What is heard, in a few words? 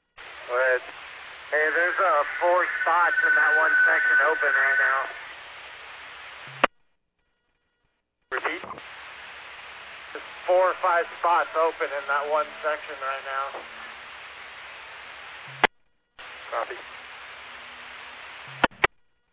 Medevac, scanner; NativeAirAmb